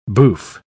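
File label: "bouffe", British English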